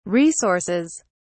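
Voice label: "resources", British English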